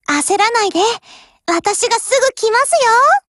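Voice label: BLACK ACTION Japanese, Voice; GF Alarm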